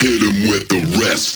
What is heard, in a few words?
Vocal Phrase Male "Rest"